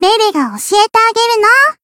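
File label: encounter, Japanese; lele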